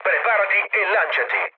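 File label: Italian bailout mood low, speech; War Thunder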